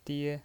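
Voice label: "die" Mandarin Chinese, first tone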